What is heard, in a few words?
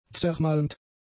"zermalmt", German; adjectives